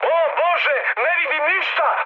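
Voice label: Serbian searchlite mood high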